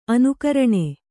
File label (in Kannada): "ಅನುಕರಣ", Kannada